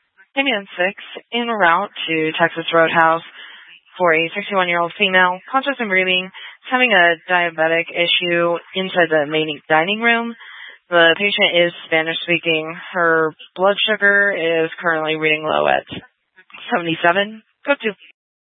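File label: Fire Dispatch, Scanner audio recording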